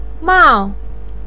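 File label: "mao", Mandarin pinyin, tone 4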